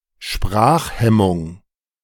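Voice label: "Sprachhemmung", German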